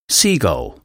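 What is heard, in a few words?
"seagull", English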